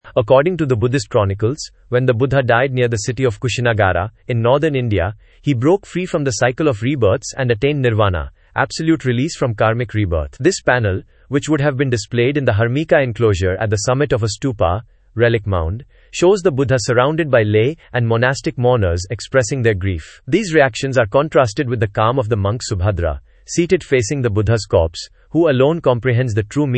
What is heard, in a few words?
English Audio